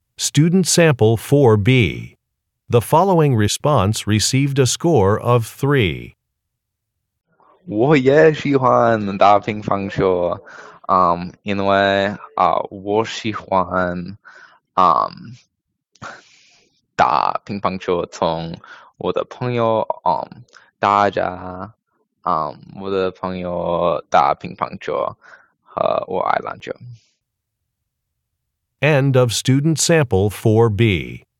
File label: chinese conversation